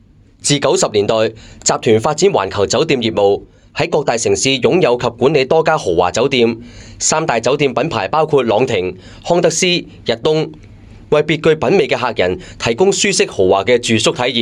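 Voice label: male Cantonese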